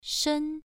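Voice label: "shen" Mandarin Chinese, first tone, pronunciation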